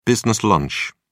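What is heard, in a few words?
"business lunch", British English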